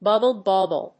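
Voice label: "Bubble Bobble", English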